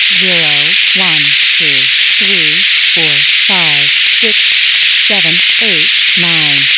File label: Noisy Digits